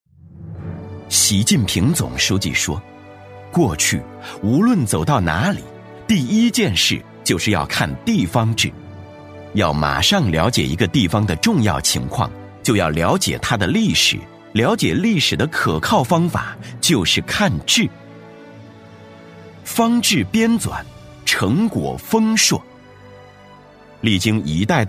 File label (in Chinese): 男 政府专题片 大气抒情; 磁性低沉; 大气浑厚